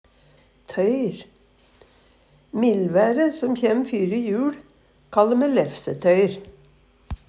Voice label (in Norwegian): tøyr, Numedalsmål; Substantiv hankjønn; Vêr og føre; Millvære som kjæm fyri juL, kalle me lefsetøyr.